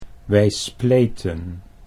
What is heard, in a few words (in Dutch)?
"wij spleten", Dutch